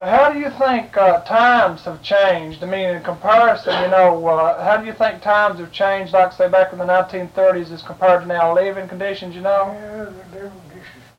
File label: Oral history with 81 year old male Jackson Kentucky, american english dialect